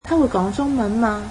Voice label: does she speak Chinese, cantonese

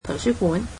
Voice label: "library", Cantonese

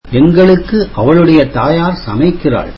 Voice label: tamil her mother cooks for us

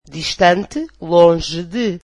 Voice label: "far from", Portuguese

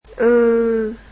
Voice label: "uu", vietnamese alphabet

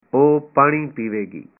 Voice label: she will drink water, punjabi

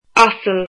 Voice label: "donkey", Irish

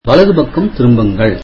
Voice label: tamil Turn right

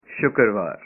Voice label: "Friday", punjabi

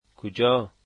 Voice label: "where", dari

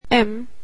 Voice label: "m", swedish alphabet

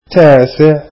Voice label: arabic ninth

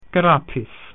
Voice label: "pencil", Latin

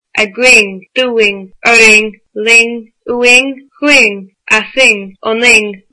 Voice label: us, irish

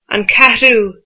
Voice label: fourth, irish

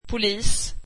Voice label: swedish police

